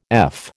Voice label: "eff", American

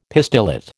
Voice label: "pistillate", American

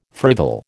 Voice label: "frivol", American

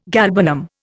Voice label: "galbanum", Indian

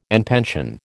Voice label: "en pension", American

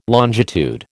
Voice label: "longitude", American

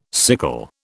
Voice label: "sickle", British

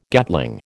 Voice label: "Gatling", American

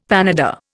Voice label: "panada", Indian; Dictionary

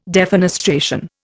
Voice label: "defenestration", Indian

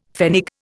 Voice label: "pfennig", Indian; Dictionary

